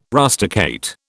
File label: "rusticate", British